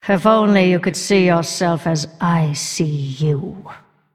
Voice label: female undead